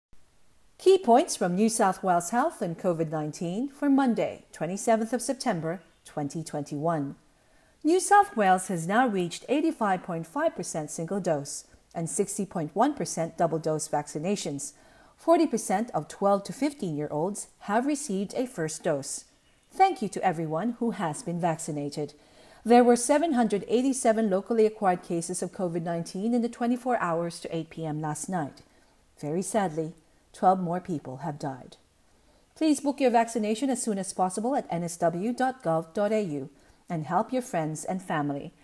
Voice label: english; covid-19 coronavirus; covid-19 daily key points